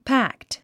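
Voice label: "pact", English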